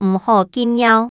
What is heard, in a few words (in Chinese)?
毋好禁尿, 客語